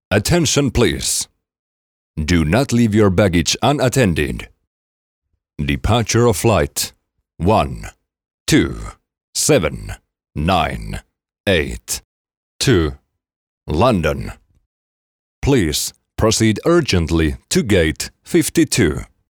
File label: english announcements